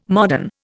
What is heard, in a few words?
"modern", Indian